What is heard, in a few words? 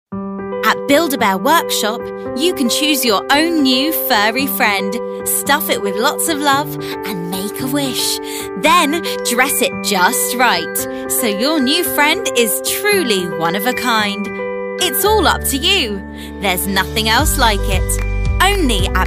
COMMERCIAL REEL